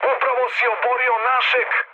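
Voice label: Serbian player killed ally mood med; War Thunder; speech srb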